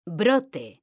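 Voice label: "BROTE", Spanish, female; mekanta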